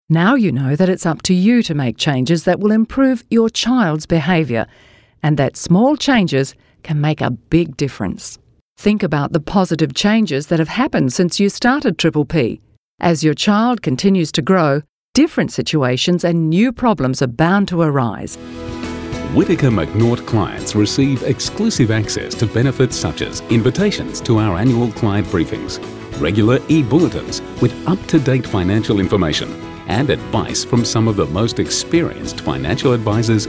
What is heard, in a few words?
Corporate Samples